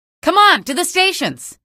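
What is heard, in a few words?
citizen squad flee, female; vo; npc; source engine; episodic; episode 1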